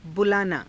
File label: "bulaanaa", Hindi; बुलाना; [他動]招く